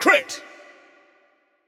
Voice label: Announcer Hit Crit; BombKing